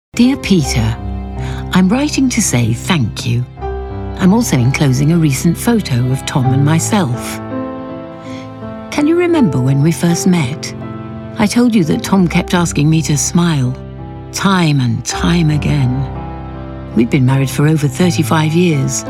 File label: narration